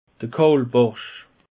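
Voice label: "kaulbarsch", Alsatian; peche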